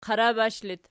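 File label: "qarabash" locative, Archi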